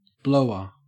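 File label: "blower", English, Australian accent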